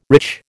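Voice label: "rich", American English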